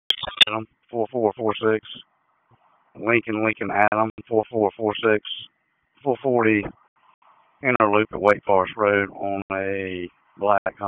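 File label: Dispatch 1, P25; WSO DISP 1; wake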